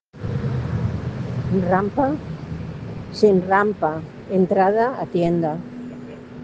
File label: Voice message